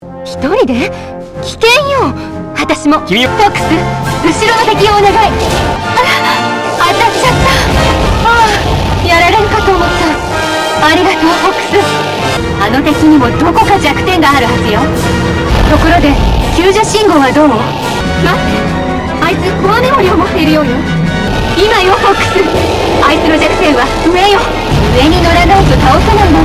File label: Japanese; Assault